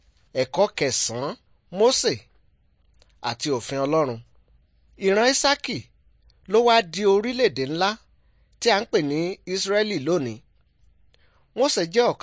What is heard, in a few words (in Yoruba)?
good news yoruba